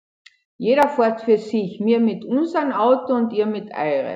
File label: Valcanale German (Tarvisio); Jeder fährt für sich: mir mit unsern auto und ir mit aire; AlpiLinK